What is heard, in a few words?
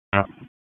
FIRE OPS, p25; wake